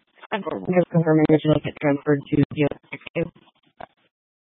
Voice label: PD Dispatch, scanner; Paradise Valley PD; B- Phx Fire and Public Works

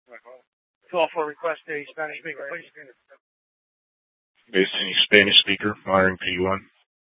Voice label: Police South Dispatch; Regional Wireless Cooperative (RWC) - Simulcast H- Scottsdale

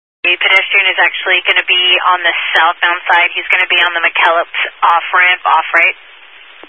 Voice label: Highway Patrol, Phoenix Metro East Area, scanner; DPS

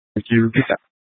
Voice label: Fire Dispatch; Phx PD Fire Dispatch; C- Chandler; RWC

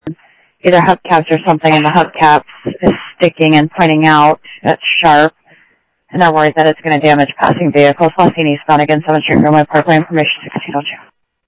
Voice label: Phoenix PD, scanner audio

